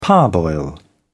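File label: "parboil", British English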